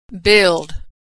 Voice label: verb "build", pronunciation; billed build

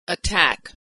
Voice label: "attack", pronunciation

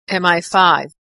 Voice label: "MI5", American English, acronyms

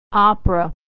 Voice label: "opera", American English

